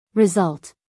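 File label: "result", American English, female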